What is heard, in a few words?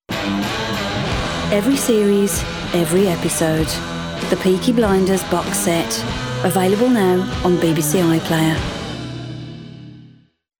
Promo; Peaky Blinders; Vocal Point